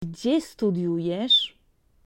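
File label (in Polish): "gdzie studiujesz", polaco; Vocabulario: frases y palabras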